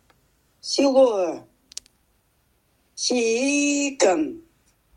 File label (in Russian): "силуэ, сиикэн", [силуэ, сиикэн]